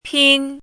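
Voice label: "pīn", Chinese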